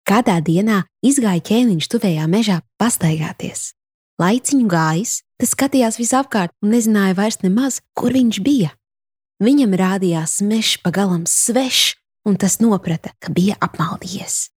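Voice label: Latvian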